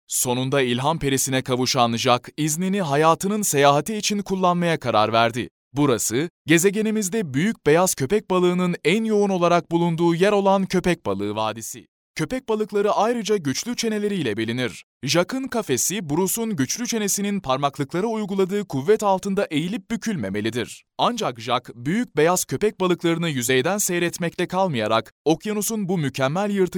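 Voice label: Turkish, male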